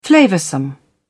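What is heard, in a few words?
"flavoursome", British English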